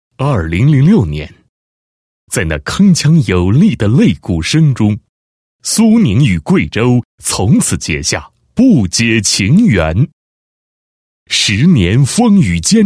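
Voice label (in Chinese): 男-年会-2016年年会宣传片VCR, 配音案例; 宁易购2016年贵州年会宣传片VCR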